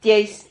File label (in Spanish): "tieis", Silabas